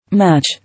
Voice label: "Match", English